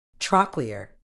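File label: "trochlear", British English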